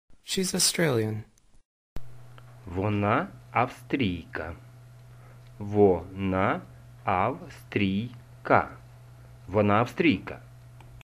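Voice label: She is Australian, Ukrainian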